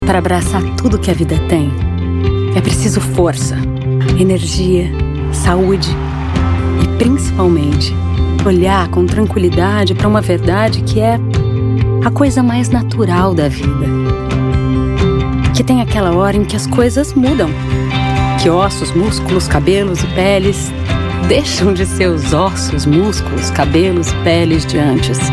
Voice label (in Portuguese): Motivacional Inspiradora